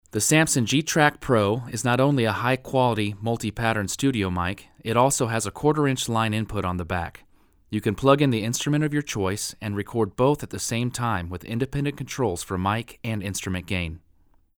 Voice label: Voc Samson GTrack Omni, usb mic